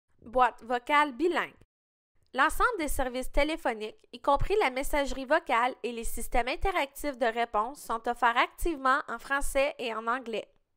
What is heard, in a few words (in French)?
Boîte vocale bilingue